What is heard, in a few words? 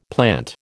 "plant", American